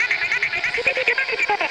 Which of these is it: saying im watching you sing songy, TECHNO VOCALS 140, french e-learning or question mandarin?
TECHNO VOCALS 140